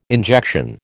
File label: "injection", American